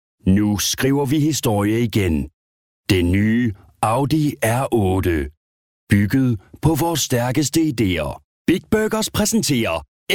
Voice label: male danish voice over sales

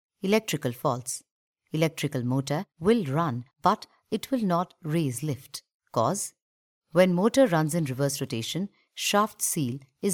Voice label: female hindi voice over english

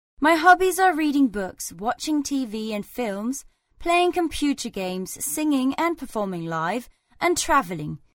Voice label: female danish voice over english

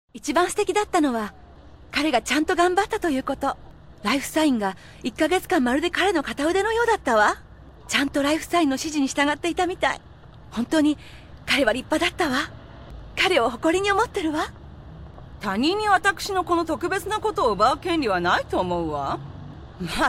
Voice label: female japanese voice over character

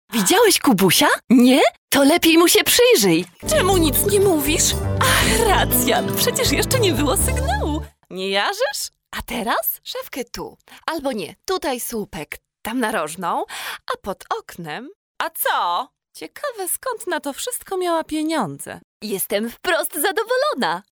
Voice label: female polish voice over character

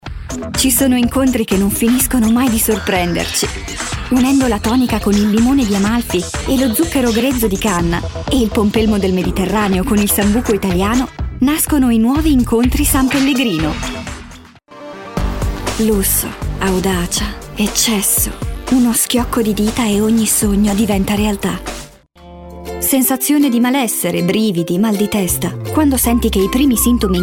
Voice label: female italian voice over sales

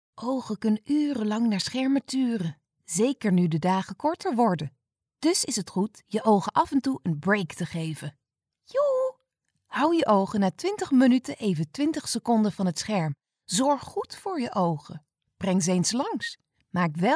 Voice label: female dutch voice over sales

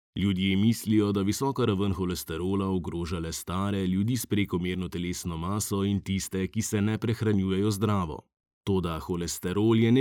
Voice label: male slovenian voice over talent narrative

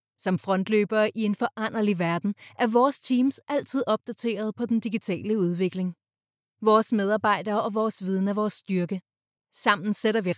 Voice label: female danish voice over narrative

